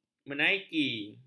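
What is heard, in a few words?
"menaiki", Malay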